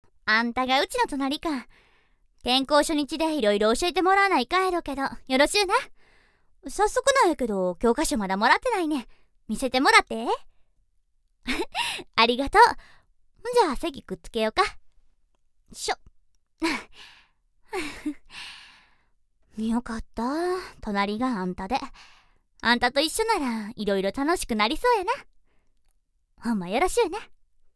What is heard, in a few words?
girl, samplevoice